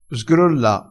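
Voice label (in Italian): "sgrolla", vocabolario dialettale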